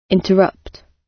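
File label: "interrupt", British English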